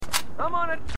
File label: marine aussie; h2dialogue; dubbo